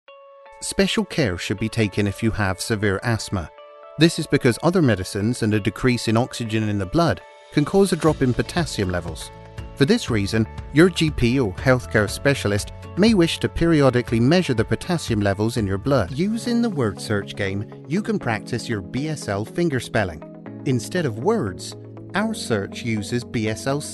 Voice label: english british others

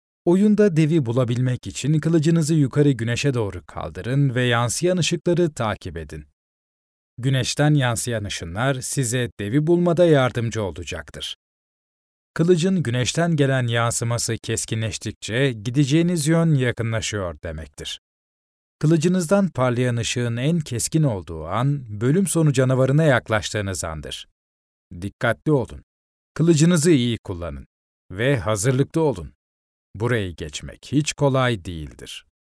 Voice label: turkish video games

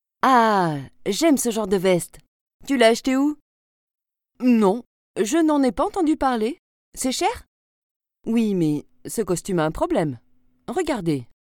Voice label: french e-learning

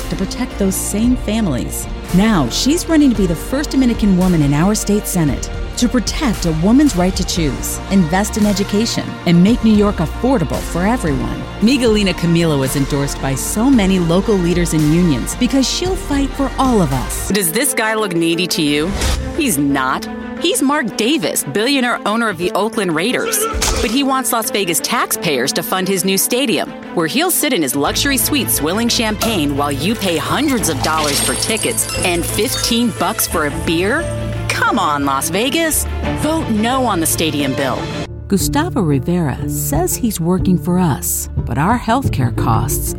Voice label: english american political ads

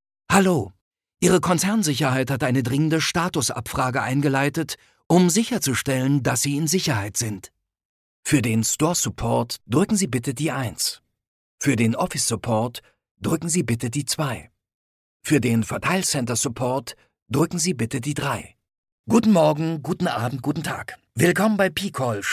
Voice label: german ivr

